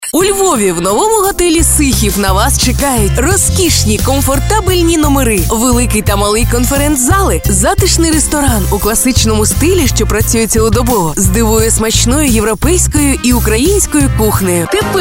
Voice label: ukrainian voiceover locutora ucrania, Ukrainian female voice over talent